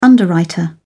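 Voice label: "underwriter", British English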